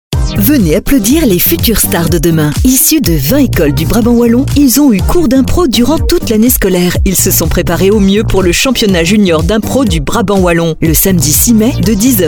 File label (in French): improvisation